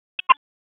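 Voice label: Raleigh Police North District Tactical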